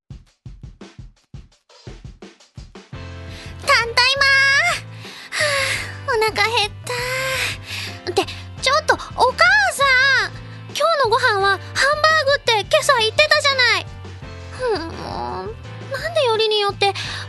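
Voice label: Narration; AnimeFu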